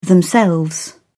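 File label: "themselves", British English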